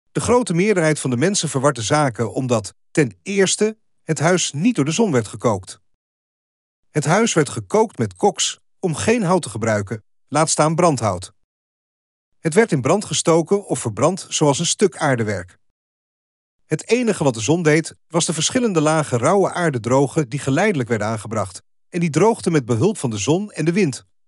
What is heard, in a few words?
DUTCH; Casa Terracota